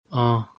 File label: "Aan", Dogri; Yes